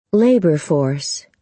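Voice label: "labour force", American English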